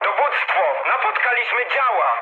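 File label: Polish damaged anti-aircraft mood medium; speech; War Thunder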